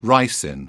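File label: "ricin", British English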